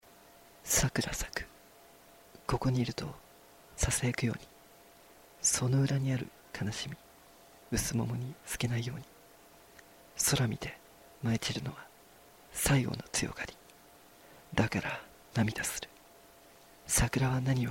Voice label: poem